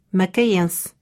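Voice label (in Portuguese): "macaense", Portugisisk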